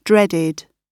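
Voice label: "dreaded", British English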